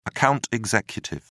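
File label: "account executive", British English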